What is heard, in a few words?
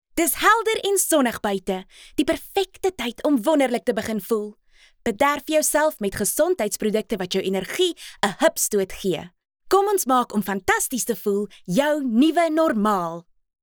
Afrikaans, Female